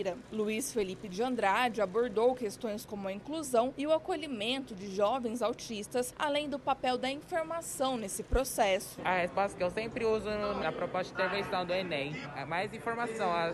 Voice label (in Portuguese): spot tribuna radio camara